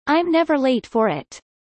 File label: fast